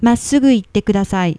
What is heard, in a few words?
"massugu itte", Japanese